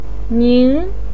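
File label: "ning2", Mandarin pinyin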